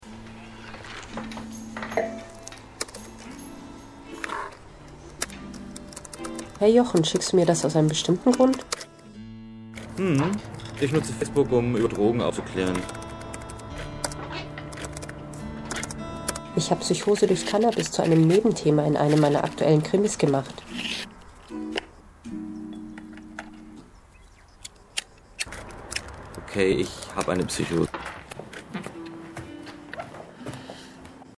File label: Trailer; Owl yeah; FLAT CHAT